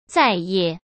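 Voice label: "再也" Mandarin Chinese; hsk; chinese-flashcards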